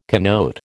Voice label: "connote", American